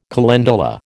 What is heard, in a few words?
"calendula", American